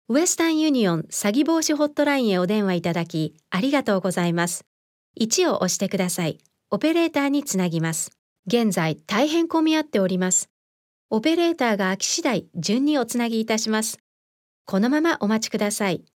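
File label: Japanese IVR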